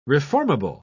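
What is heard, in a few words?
"reformable", English, uk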